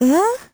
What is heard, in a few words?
vo confused; Crypt of the NecroDancer